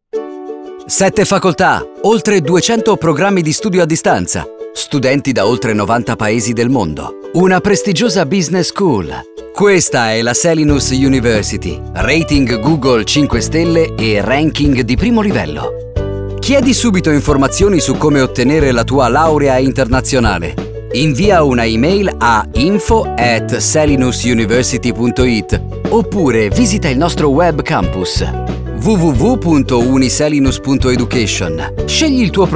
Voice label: Spot Istituzionale ITA; Uniselinus